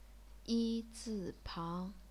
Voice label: yi zi pang, chinese pinyin